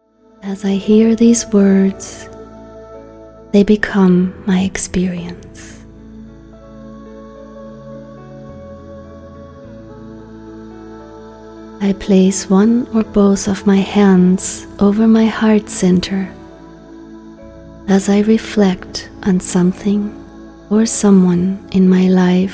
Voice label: Gratitude Meditation